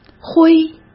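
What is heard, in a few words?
"灰" Mandarin Chinese; chinese flashcards; hsk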